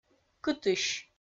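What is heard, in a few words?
"кытысь", Komi-Zyrian, female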